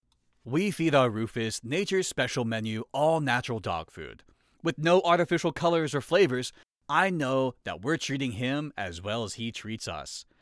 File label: Commercial Conversational